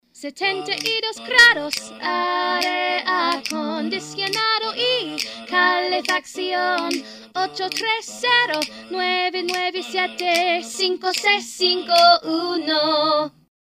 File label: female